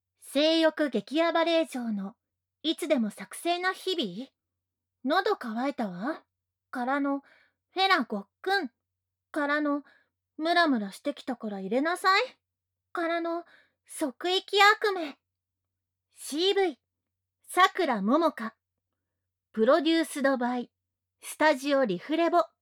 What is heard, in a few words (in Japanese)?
タイトルコール, ASMR